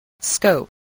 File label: "scope", english spelling